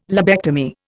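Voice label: "lobectomy", Indian English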